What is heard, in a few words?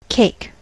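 "cake", US English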